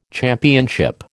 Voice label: "championship", American